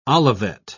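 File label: "olivet", British English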